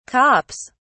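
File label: "cops", British English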